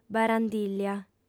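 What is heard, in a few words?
"barandìllia", female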